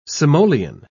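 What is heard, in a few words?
"simoleon", British English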